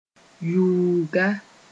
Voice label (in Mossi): "yuuga", moore